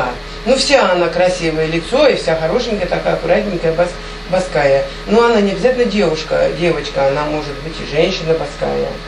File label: middle ob dialect; баский